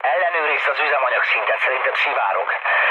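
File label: Hungarian tank damaged mood low, speech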